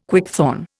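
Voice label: "quickthorn", Indian English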